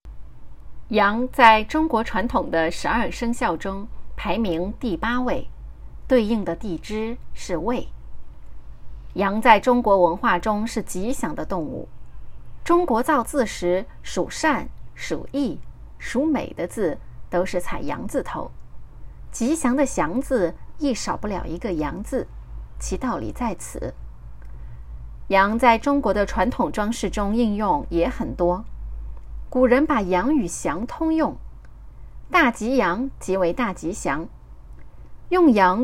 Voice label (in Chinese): 百科配音号试音